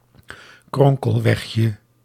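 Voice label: "kronkelwegje", Dutch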